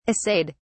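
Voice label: "assayed", British English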